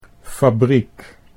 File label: "fabriek", Dutch